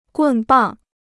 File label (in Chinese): "棍棒", Chinese